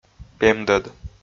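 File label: "пемдӧд", Komi, male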